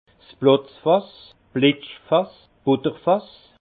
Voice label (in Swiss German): "plotzfass", alsace; vin; baratte